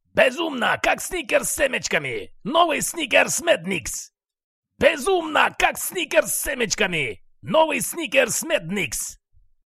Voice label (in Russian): реклама; СНИКЕРС